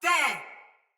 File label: See Player Shout Soldier Mace Agent Female; Battle; Sinecure Melee